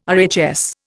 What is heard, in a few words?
"RHS", Indian